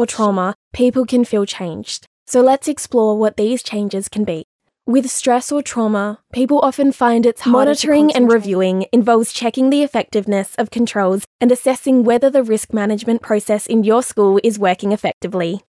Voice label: Natural